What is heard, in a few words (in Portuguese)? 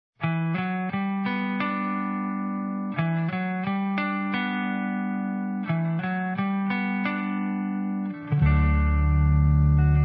casados Masculino; Telemensagens; Dia Dos namorados; Telemensagens 2017